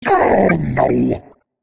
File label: idle, giant zombie soldier; slender